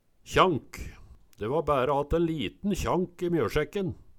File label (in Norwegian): kjank, Numedalsmål